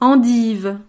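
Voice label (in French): "endive", French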